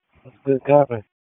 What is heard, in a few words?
PS TAC, p25; wake p25